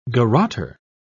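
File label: "garroter", British English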